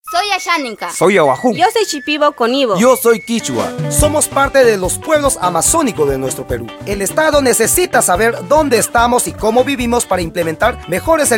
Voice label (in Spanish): spot castellano amazonico; autoidentificacion peru